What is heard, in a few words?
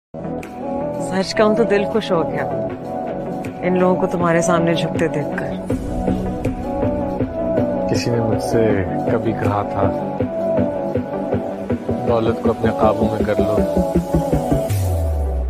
Dialogue Ringtone; Daulat Ko Apne Kabu Mein Kar Lo